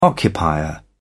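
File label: "occupier", British English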